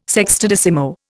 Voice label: "sextodecimo", Indian

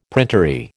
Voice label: "printery", American

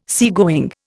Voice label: "seagoing", Indian

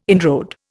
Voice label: "inroad", Indian

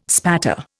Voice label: "spatter", Indian

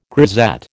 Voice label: "grisette", American

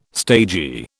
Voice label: "stagy", British

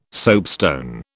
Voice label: "soapstone", British

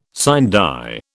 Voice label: "sine die", British

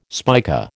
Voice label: "spica", American

